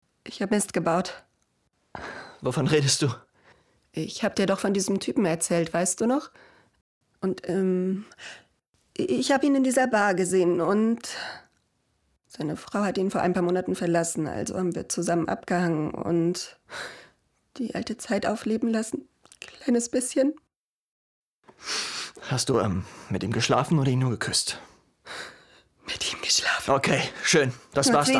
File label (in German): synchron beziehungsstreit